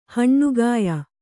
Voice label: "ಹಣ್ಣುಗಾಯಿ", Kannada